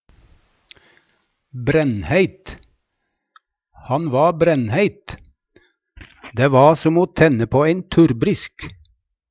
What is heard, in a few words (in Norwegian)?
brennheit, Numedalsmål; Adjektiv